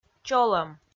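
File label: "чолӧм", Komi, female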